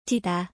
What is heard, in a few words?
"ttwida", Korean word